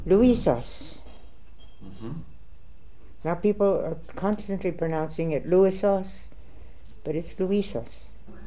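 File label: "Looisos", pronunciations; Fourth Way; Beelzebub pronounciations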